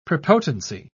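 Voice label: "prepotency", British English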